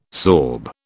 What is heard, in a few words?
"sorb", British